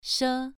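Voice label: "she", Mandarin Chinese syllable, second tone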